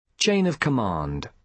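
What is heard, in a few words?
"chain of command", British English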